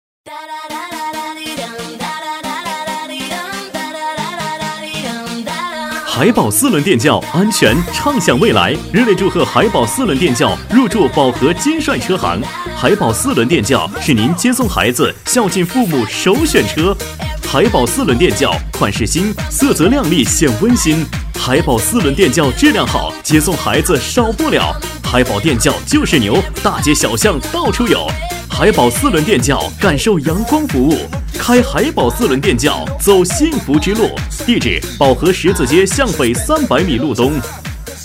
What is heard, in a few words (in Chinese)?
男 促销广告 电动车; 海宝四轮电轿